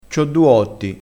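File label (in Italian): "otti", dialettale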